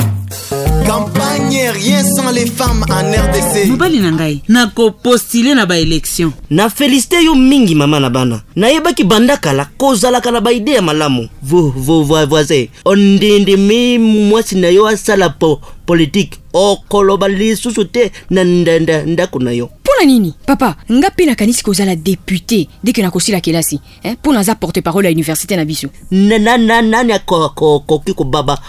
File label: spot lingala